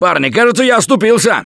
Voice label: Ledge Hang Start, russian, Survivor Voice; Gambler